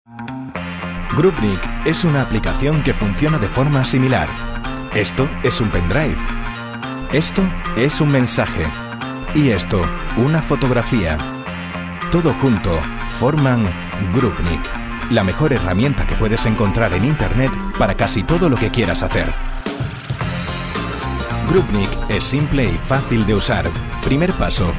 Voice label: commercial